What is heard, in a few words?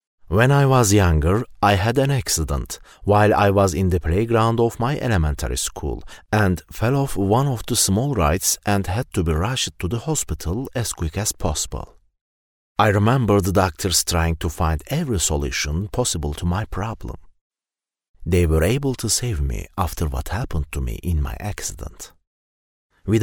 English with Turkish Accent; Turkish Accent English Voice Over